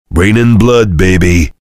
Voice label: soldier cheers

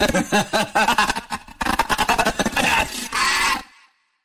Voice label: rage medic; missingteam

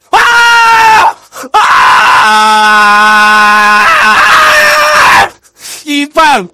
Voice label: scout jeers

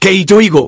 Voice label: negative vocalization

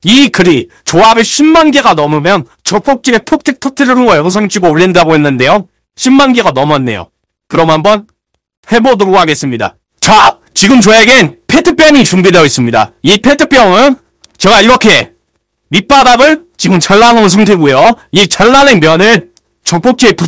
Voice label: scout cheers

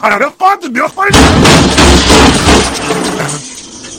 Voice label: heavy negative vocalization

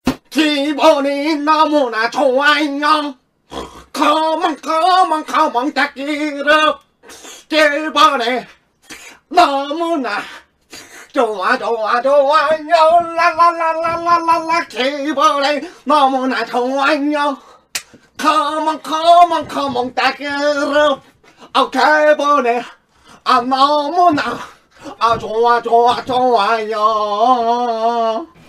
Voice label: scout cheers

